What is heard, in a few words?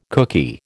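"cookie", American English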